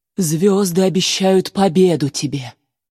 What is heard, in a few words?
yes, villager; mob; Minecraft; Votive World